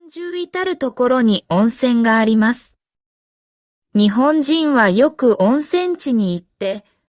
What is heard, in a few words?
translate sample japanese slow